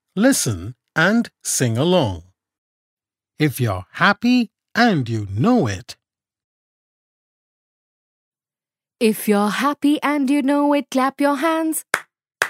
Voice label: Rhymes Reading Text; FSPE 1 Unit 5